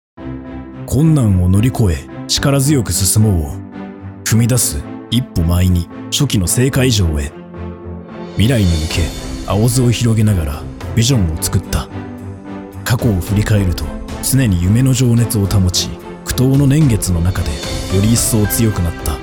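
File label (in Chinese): 日籍日语男宣传片; 东风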